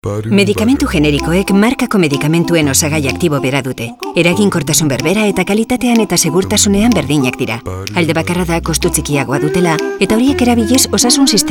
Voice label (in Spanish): euskera; 20 segundos; medicamentos Genericos EFG